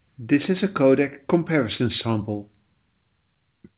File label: Codec comparison sample G711